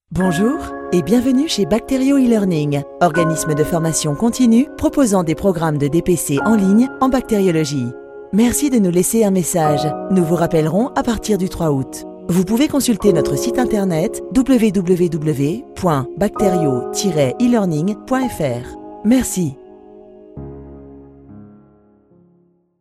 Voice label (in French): FR Message tel vacances Elearning; French; Bacterio